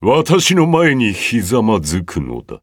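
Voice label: victory, Japanese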